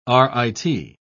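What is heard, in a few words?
"rit.", British English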